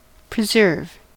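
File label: "preserve", US English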